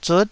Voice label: "zut9", taiwanese